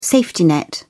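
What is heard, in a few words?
"safety net", British English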